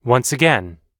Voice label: once again, US English

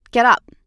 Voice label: get up, US English

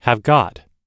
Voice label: "have got", US English

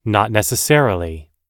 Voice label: "not necessarily", US English

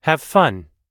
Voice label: have fun, US English

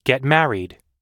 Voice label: "get married", US English

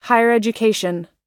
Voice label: higher education, US English

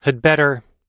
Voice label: had better, US English

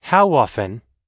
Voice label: "how often", US English

